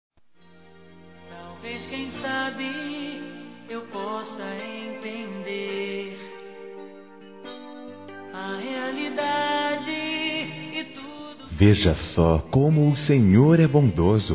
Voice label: Trilha ROMANTICA SUAVE, Gospel, masc; Telemensagens

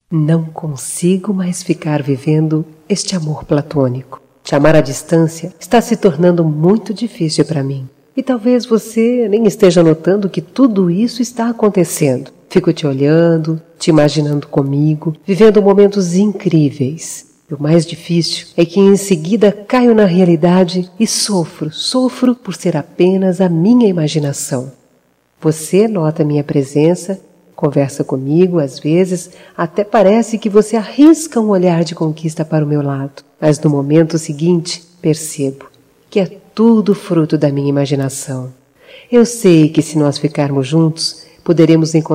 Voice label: Declaração Feminino, Telemensagens, Só Voz; Telemensagens 2017

